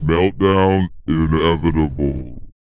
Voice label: pitch low; R&B & Dance; SCI-FI; ILIO; Vocal Planet